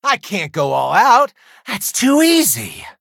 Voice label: battle en; cookie run

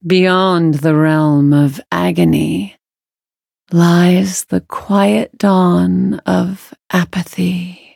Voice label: loading, en; cookie run

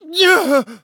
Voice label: hit fr; Cookie Run

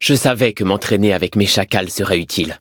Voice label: levelup, fr

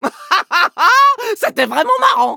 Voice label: victory, fr; Cookie Run

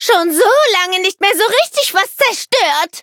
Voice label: loading, de; cookie run

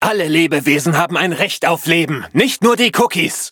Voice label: loading, de; Cookie Run

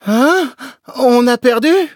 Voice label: defeat, fr; cookie run

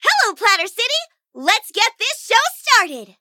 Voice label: menu tap eng; Cookie Run; dj miya

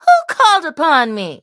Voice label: touch, en; cookie run

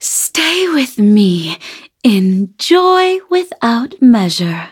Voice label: loading, en; Cookie Run

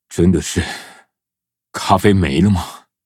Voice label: defeat, zh-hant

